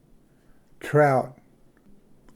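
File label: "trout", English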